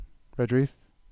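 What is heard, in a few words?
"Redruth", English; place-names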